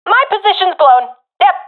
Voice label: takingfire, professional fem; vo; Counter Strike Model Pack; CS:GO

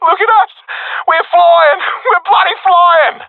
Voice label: round winning, professional epic; vo; Counter Strike Model Pack; CS:GO

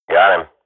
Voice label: radio bot kill, swat; Counter Strike Model Pack; CS:GO

